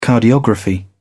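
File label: "cardiography", British English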